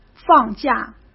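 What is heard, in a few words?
"放假", Mandarin Chinese; hsk; chinese-flashcards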